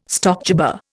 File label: "stockjobber", Indian